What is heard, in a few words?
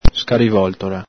"scarivoltola", Veneto